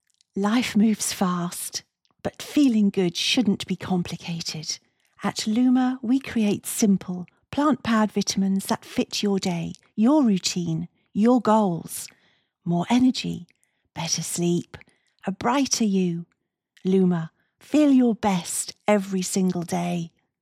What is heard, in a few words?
COMMERCIAL Modern Lifestyle Brand, voiceovers